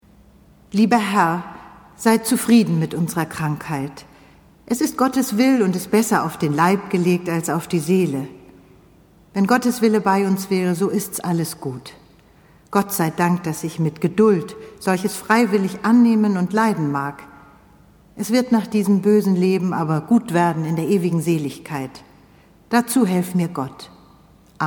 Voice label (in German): doku